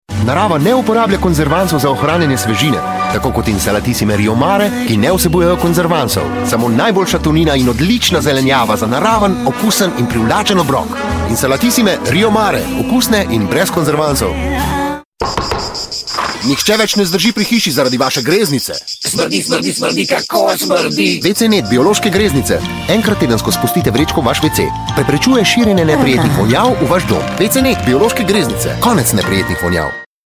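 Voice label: slovenian male commercial; voice demos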